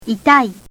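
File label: "itai", Japanese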